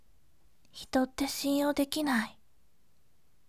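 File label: voice, bright; hito shinyou dekinai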